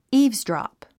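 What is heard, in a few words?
"eavesdrop", English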